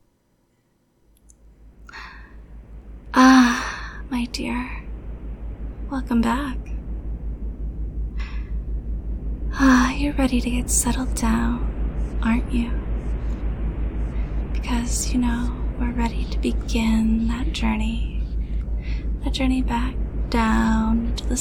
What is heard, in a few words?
Binaural Brainwashing; Erotic audio